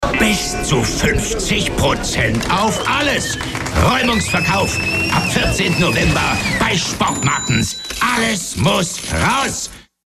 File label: On-Air Sport Spot; Sport Martens; Werbung